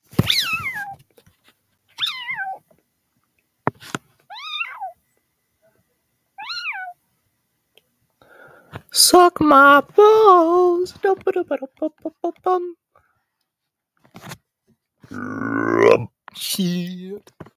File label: voice message